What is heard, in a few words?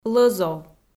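"лӧзов" Komi, female; kpv audio dict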